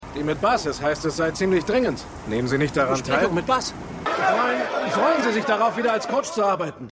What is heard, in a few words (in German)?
Sportreporter; Winning Time